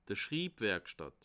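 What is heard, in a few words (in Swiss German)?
"schribwerikstatt", Alsatian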